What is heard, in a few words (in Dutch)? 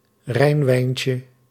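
"rijnwijntje", Dutch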